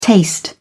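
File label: "taste", British English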